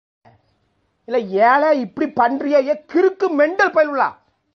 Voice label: Comedy Dialogue